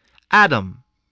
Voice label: "atom", english